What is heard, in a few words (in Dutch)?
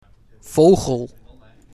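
"Vogel", Dutch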